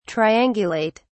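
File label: "triangulate", British English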